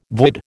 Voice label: "void", American